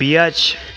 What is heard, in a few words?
"bitch", expletives